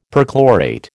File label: "perchlorate", American